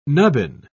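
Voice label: "nubbin", British English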